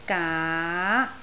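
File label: "gaa2", Cantonese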